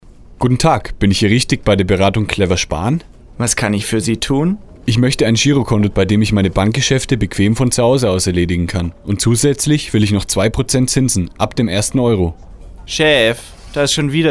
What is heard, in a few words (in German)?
Werbung Sparkasse